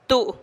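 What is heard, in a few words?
"tuu'", Teotitlán del Valle Zapotec; vamanos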